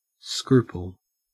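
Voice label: "scruple", Australian English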